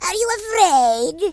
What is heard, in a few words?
boring, Horror